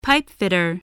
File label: "pipefitter", voice, English